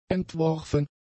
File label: "entworfen", German; adjectives